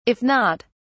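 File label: "if not", English, British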